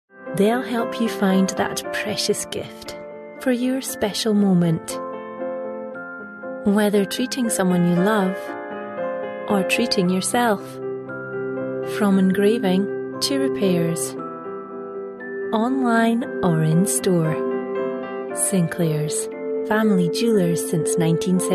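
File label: English, Scottish, female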